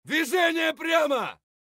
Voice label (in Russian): "dvijenie pryamo" scream; tarkov